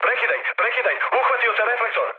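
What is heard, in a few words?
Serbian searchlite mood med; speech; War Thunder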